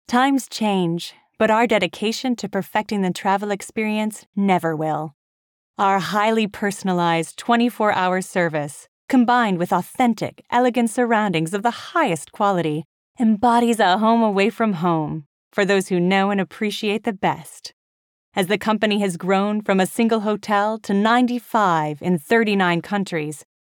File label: US VO warm